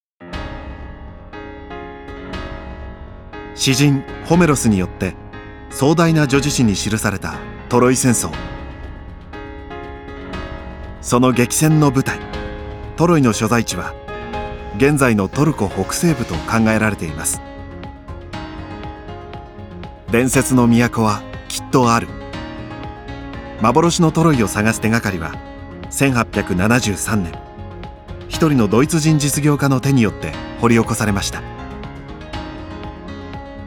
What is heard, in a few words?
straight documentary program